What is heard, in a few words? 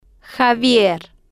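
"xavier", Spanish, female, slow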